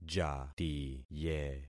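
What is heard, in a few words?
"jatiye", concatenated syllables; Affixing experiment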